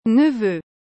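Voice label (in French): "neveu", French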